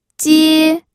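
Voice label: "jie1", Mandarin Chinese first tone; pinyin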